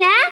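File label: "na" fast, Japanese; Fan Club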